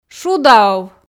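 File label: шуда ов, Komi, female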